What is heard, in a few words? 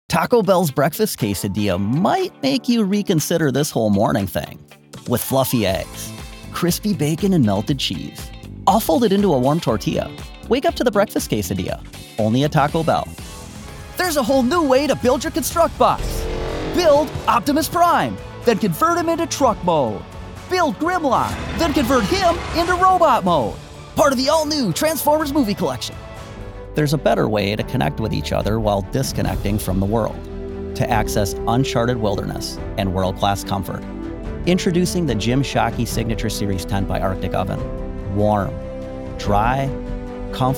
Commercial